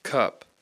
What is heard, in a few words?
"cup", U (open sound), English